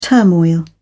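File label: "turmoil", British English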